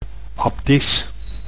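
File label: "abdis", Dutch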